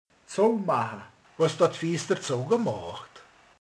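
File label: "becsuk", Hungarian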